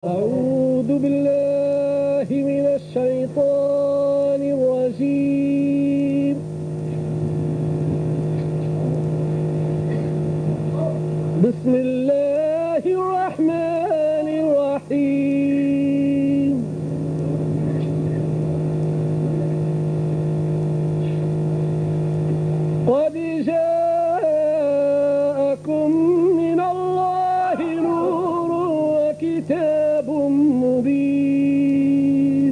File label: Recitation